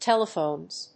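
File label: "telephones", English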